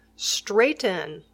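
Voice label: "straighten", English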